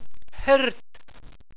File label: "hirt", Walser